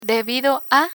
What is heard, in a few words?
"debido a", Spanish